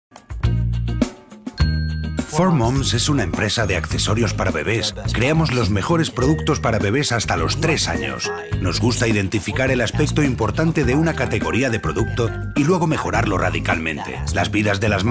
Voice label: Doblaje Video Reality Dubbing Voiceovers